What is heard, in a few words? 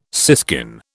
"siskin", British